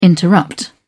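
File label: "interrupt", British English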